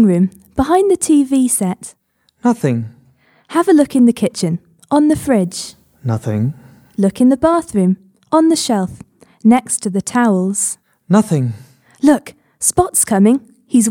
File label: Dialogue The Sunglasses